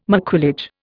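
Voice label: "maquillage", Indian English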